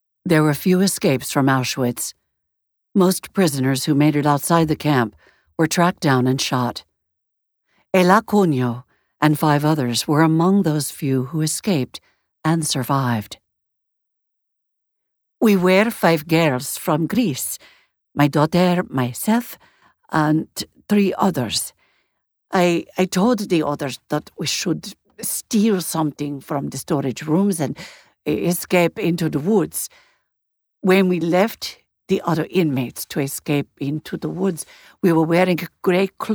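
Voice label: Non Fiction World History, Audiobook Narration, Greek dialect; Brooklyn Goes Home: The Rise and Fall of Greyhound Racing / Non-Fiction – World History (Greek dialect)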